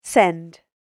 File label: "Send", British Female Voice; Talking Sound Effects; spoken word sfx